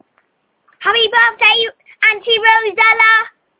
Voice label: birthday shout out